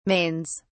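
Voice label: "mains", British English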